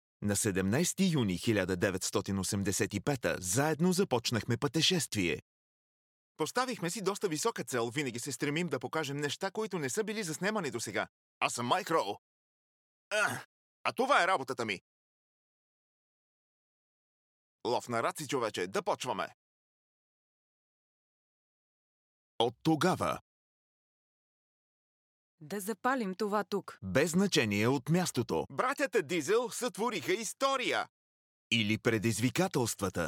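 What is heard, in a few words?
doblaje, Bulgarian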